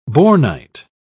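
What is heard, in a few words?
"bornite", British English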